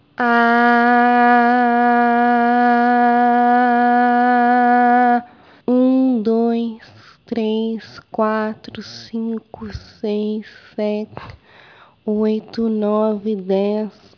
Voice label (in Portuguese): Ressonância Nasal